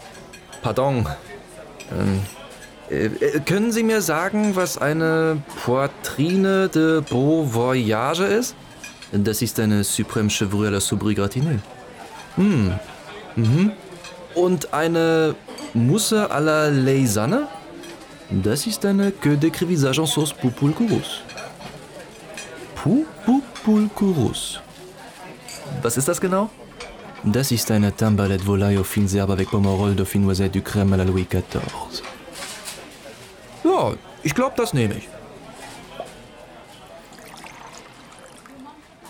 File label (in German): Französisch Akzent Hörspiel